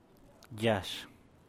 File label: "yash", San Mateo Macuilxochitl Zapotec, avocado; aguacate